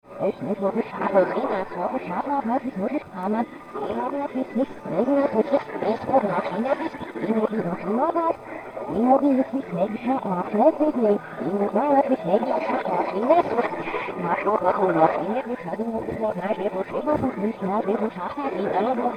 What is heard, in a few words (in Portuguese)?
voz de radio